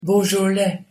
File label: pronunciation nl beaujolais